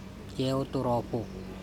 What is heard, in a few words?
knife sharp, Andamanese; ceotOrOpHo